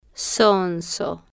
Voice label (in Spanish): "zonzo", Spanish